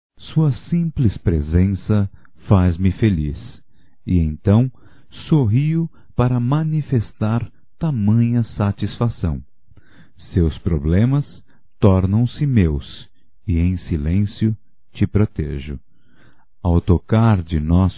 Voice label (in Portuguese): AMO VOCÊ - M, Românticas